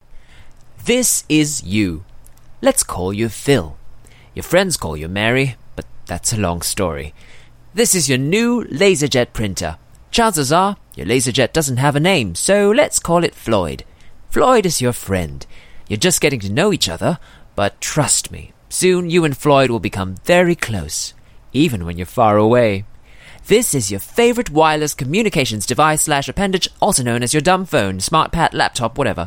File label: Asian English British Read